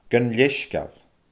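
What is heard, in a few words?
"gun leisgeul", Scottish Gaelic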